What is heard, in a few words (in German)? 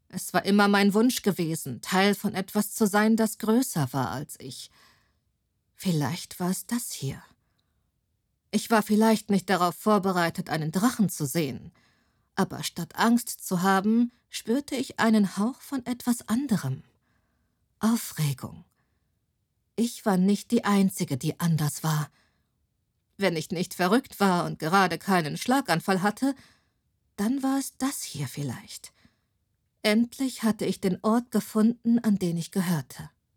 Hoerbuch, hoerspiel